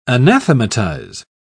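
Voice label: "anathematize", English, British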